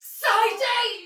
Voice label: Damage Low Chance, Soldier Female, Battle; Dialog; Barks; Sinecure